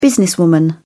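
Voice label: "businesswoman", British English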